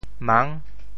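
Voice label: "mang6", Teochew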